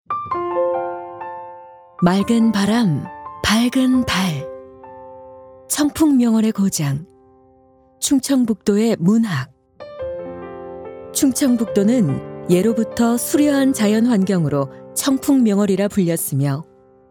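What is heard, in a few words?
Korean, female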